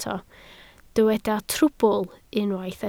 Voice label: "trwbl", Welsh

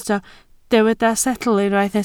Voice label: "setl", Welsh

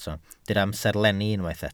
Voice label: "amserlenni", Welsh

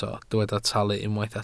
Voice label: "talu", Welsh

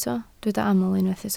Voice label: "aml", Welsh

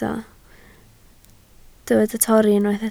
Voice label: "torri", Welsh

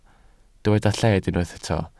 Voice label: "lled", welsh

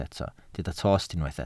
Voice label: "tost", Welsh

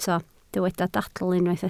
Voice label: "dadl", welsh

